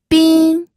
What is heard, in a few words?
"bin1", pinyin